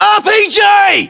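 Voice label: threat rpg, battlechatter, British; localized english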